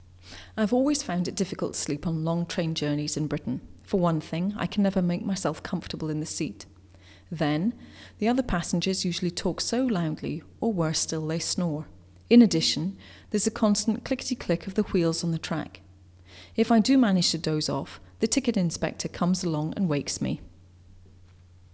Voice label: dub; ADR demo; split DTW